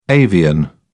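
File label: "avian", British English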